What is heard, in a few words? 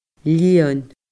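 "lìon", Scottish Gaelic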